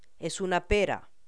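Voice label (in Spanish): "una pera", Spanish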